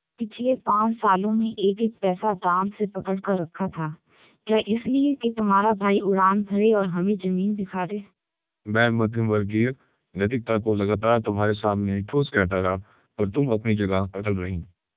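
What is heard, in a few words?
Hindi short, speechsamples, MELPe 1200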